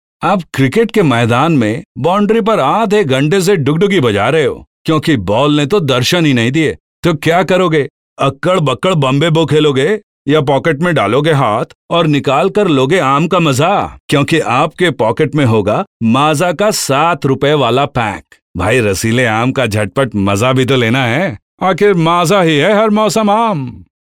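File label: Hindi character voice over, male voice; Maaza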